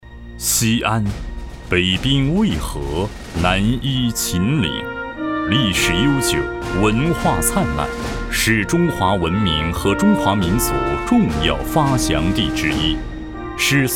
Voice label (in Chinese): 男 专题 法治; 西安法治